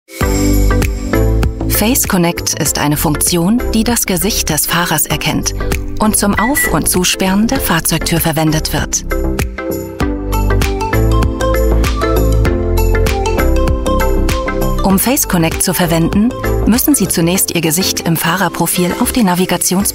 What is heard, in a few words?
german voice over female elearning